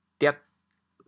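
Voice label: "diag8", Teochew, chaoyang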